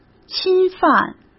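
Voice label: "侵犯", Mandarin Chinese; chinese-flashcards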